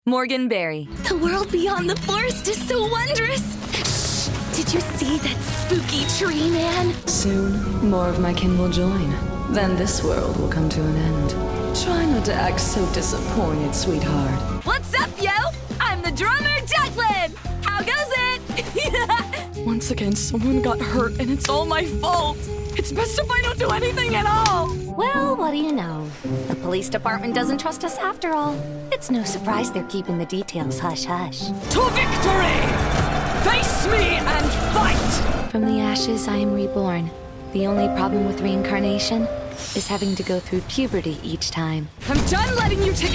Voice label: Animation